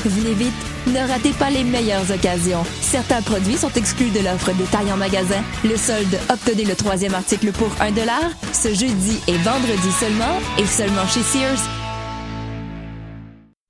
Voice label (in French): voix femmes